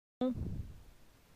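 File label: "Gon", French; phonetique; la syllabe on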